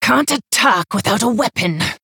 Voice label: Female Worgen Emote ERROR NEED WEAPON